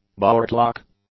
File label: "boardlock", English